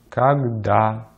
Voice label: "когда", Russian; Consonantes en ruso; easypronunciation